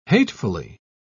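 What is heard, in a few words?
"hatefully", British English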